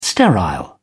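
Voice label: "sterile", British English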